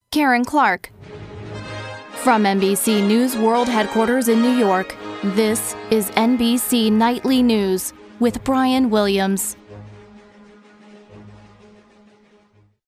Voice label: Nightly News Open; NBC; Auditions